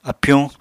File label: "apyuñ", Apatani